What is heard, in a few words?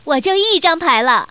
Woman